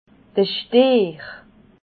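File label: "stier", Alsatian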